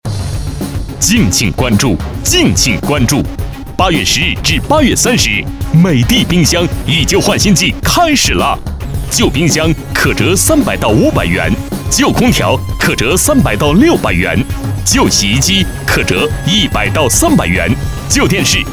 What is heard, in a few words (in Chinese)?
广告, 促销, 空调, 激情; 美的空调